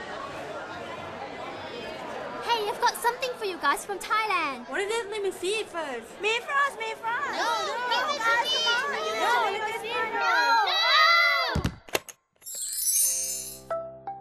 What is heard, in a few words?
Radio Spot